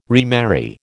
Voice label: "remarry", American